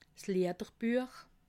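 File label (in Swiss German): "liederbuech", Alsatian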